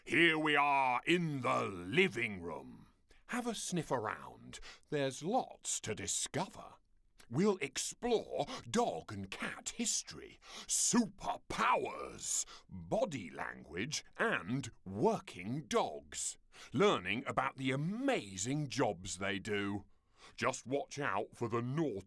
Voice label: intro narrator; Woonkamer; Purina NL